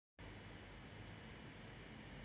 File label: voice message